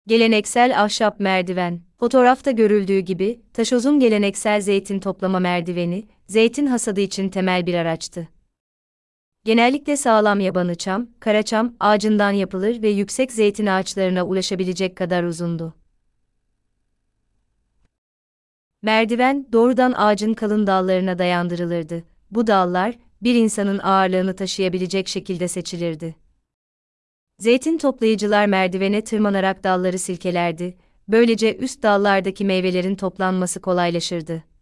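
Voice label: turkish, Audio guided tour; Σκάλα; Bu merdiven, Taşoz’un tarımsal geleneğini ve yerel marangozluk becerisini yansıtır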